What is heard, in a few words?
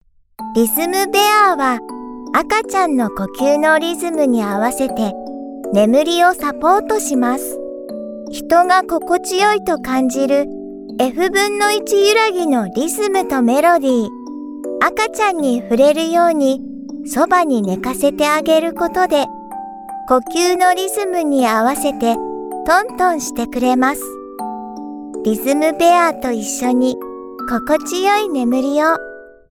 Japanese Rhythm